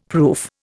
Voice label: "proof", Indian; Dictionary

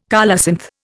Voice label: "colocynth", Indian

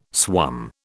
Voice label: "swum", British

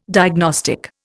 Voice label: "diagnostic", Indian

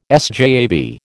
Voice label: "SJAB", American

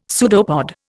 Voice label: "pseudopod", Indian; Dictionary

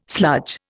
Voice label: "sludge", Indian

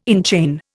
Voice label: "enchain", Indian

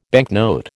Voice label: "banknote", American

